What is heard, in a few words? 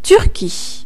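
"turkey", French; gcompris; geography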